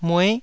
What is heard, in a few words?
"mue9", Taiwanese